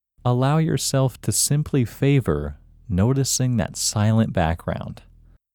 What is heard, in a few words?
Locate IN Male